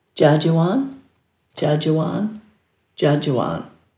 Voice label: "djaadjawan", Dhurga

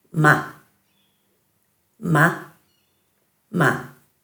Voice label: "ma", Dhurga

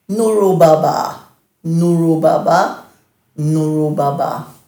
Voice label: "nhuruubabaa", Dhurga

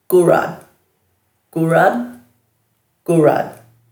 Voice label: "gurad", Dhurga

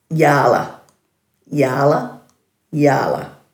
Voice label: "yaala", Dhurga; dulagaal

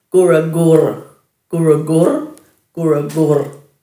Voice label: "guragurr", Dhurga

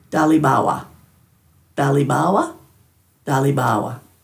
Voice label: "dhalibawa", Dhurga

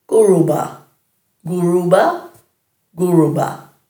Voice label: "guruba", Dhurga

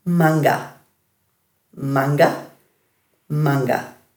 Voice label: "mangga", Dhurga

